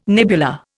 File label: "nebula", Indian